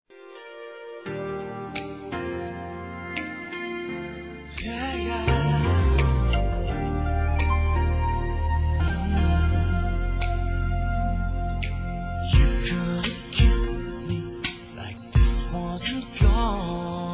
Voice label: aniversário geral f; Aniversários; Aniversários diversos; fem

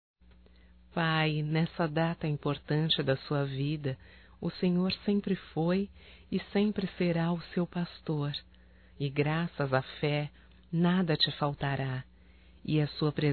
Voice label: Aniversário Pai Evangélico Feminino; Aniversarios; Telemensagens; Telemensagens 2017

